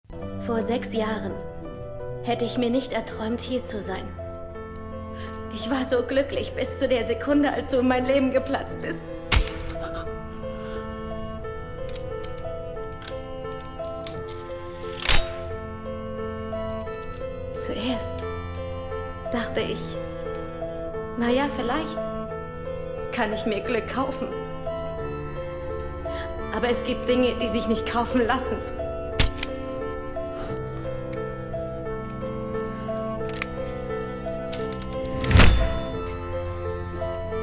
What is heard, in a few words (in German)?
Hörbuch Spiel, Sprecherin